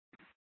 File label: Police Dispatch